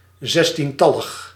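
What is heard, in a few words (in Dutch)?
"zestientallig", Dutch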